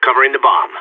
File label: guarding loose bomb, vo agents seal; Source Model Packs; Counter Strike Model Pack; CS2